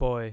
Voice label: boy